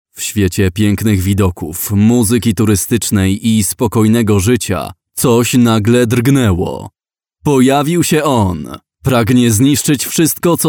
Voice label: polish movie trailers

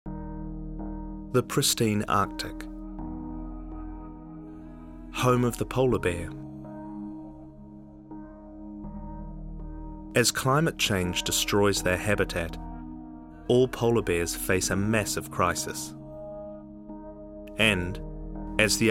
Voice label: English New Zealand narration

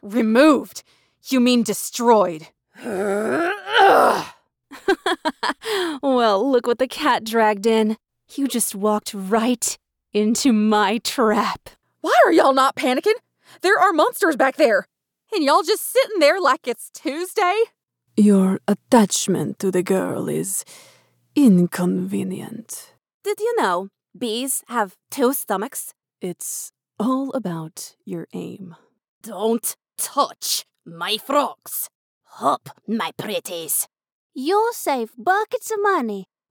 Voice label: english american animation

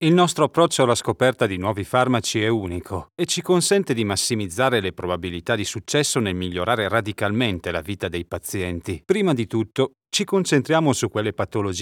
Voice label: italian medical narration

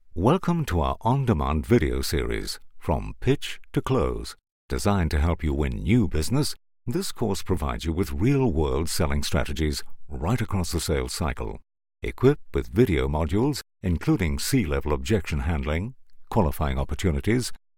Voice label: english scottish e-learning

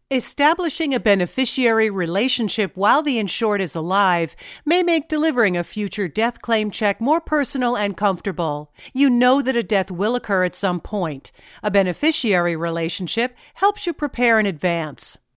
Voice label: english american e-learning

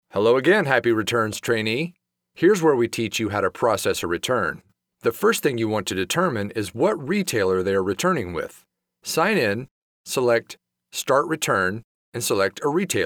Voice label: english american training e-learning

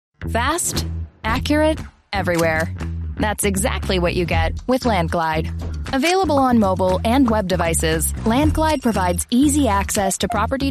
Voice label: english canadian explainer videos

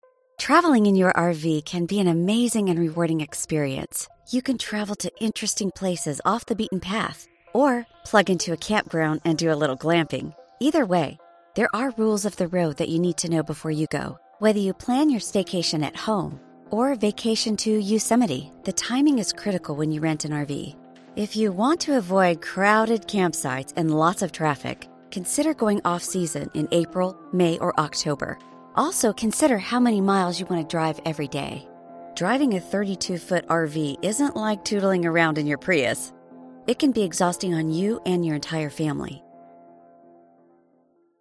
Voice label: english american training e-learning

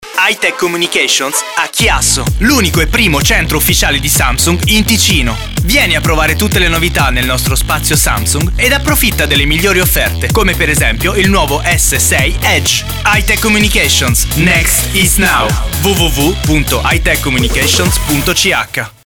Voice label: italian promos

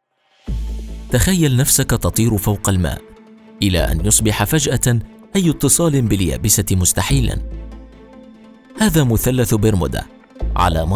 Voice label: Arabic narration

